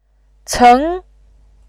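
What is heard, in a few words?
"ceng", Mandarin Chinese, tone 2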